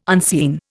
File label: "unseen", Indian